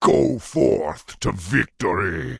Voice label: Orc Male Guard Farewell